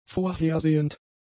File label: "vorhersehend", German; adjectives